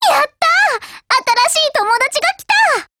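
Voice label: build over, Japanese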